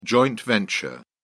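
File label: "joint venture", British English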